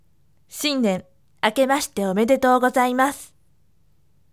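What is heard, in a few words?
voice, firm; shinnen akemashite omedetou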